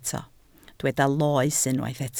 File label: "loes", Welsh